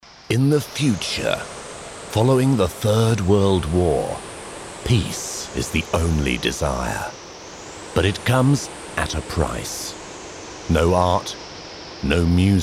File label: movie trailer with soundtrack